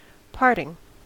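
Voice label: "parting", US English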